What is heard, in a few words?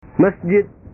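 "mesjid", Indonesian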